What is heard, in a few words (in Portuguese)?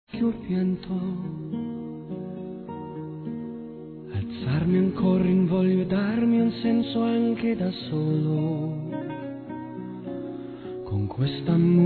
ANIV. AVÓ F